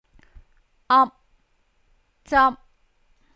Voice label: ap zap1, cantonese